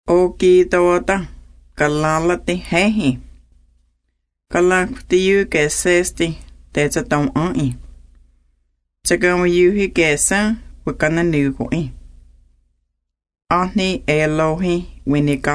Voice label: lords prayer cherokee